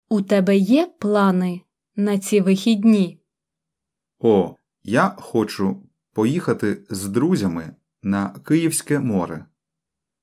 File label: Dialogue Slow